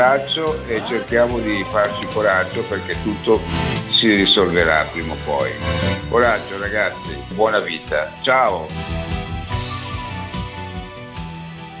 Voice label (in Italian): jingle saluto; DIK DIK